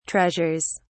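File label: "treasures", British English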